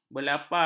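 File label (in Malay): "berlapar", Malay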